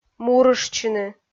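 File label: "мурыштчыны", Komi, female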